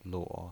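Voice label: "luo", pinyin, Mandarin Chinese, third tone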